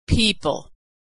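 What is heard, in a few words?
"people", American English; vowel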